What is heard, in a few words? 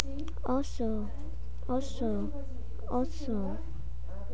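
"also", spoken word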